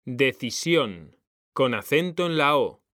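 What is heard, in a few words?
"DECISIÓN", Spanish; mekanta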